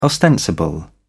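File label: "ostensible", British English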